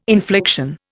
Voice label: "infliction", Indian

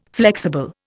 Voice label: "flexible", Indian

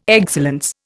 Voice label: "excellence", Indian

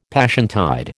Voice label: "Passiontide", American

